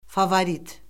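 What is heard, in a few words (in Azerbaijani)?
"favorit", Azerbaijani; Orfoqrafiya Lüğəti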